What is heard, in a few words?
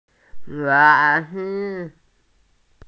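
"wa4zi5", Mandarin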